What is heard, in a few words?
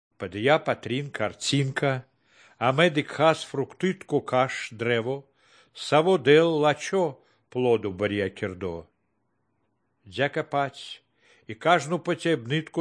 good news baltic romani